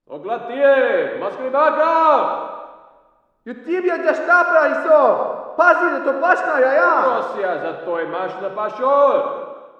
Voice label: walla rus mountain conv; modern warfare 2; amb walla